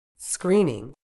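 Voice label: "screening", British English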